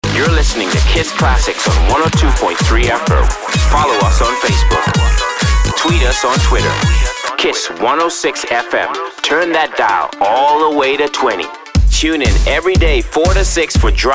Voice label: RADIO IDENT

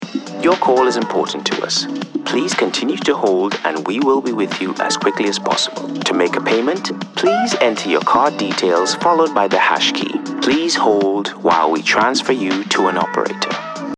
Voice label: VOICE ON HOLD

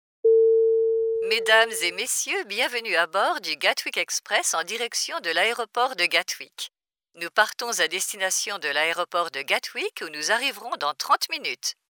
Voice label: French; Gatwick Express

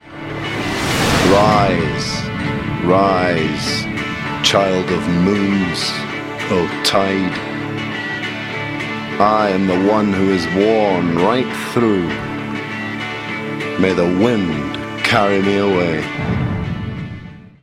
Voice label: Audiobook; Let In The Infinite

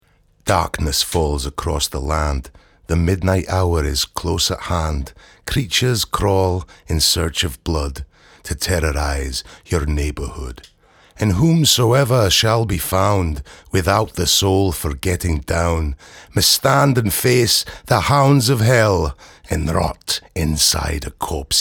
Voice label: thriller Scottish accent

